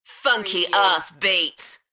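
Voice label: British female Funky arse beats; uk; beanstalk audio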